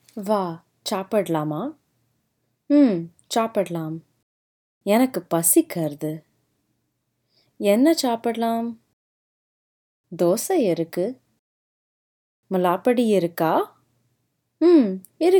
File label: conversation, Tamil informal audio lessons